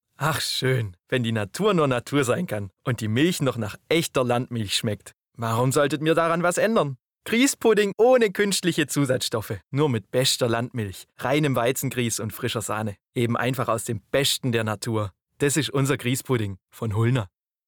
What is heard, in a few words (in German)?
schwaebisch; Griesspudding